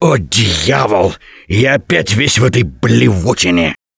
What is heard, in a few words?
boomer reaction, russian; Gambler